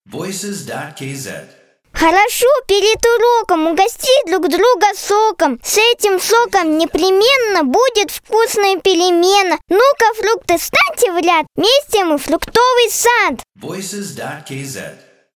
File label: ru kid